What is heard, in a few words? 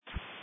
Police Dispatch